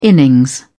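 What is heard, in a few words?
"innings", British English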